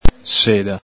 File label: "seda", Veneto